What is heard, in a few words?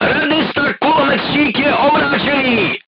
voice message machine gunner contused, crew tanks czech